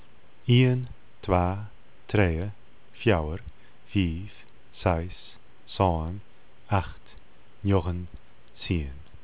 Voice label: COUNT, FRISIAN